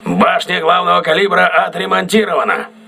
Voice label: voice message main caliber turret repaired, crew ships russian